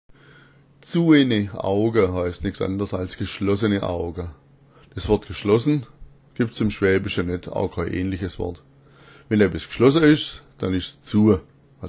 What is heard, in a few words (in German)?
"zu ene", schwaebisch